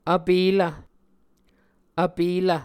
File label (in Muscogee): "vpelv", muscogee